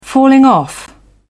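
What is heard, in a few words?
"falling off", English, British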